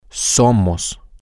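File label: Spanish, we are permanent, male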